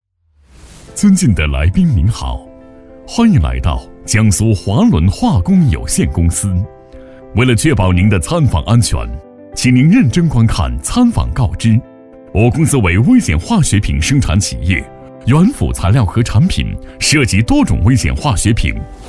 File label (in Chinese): 男 温馨提示 浑厚亲切